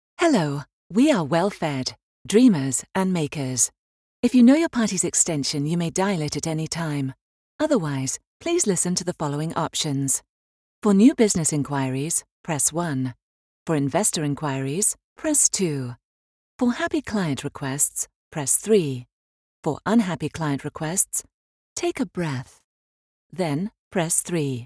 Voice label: amusing well fed phone message